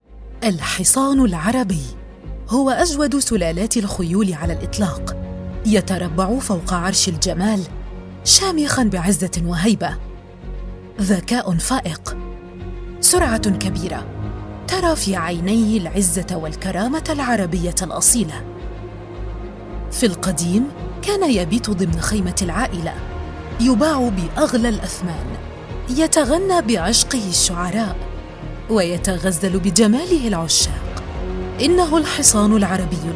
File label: TV show documentary, Powerful sharp strict; Arabic Horse